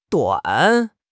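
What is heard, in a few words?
"duan3", Chinese; HSK3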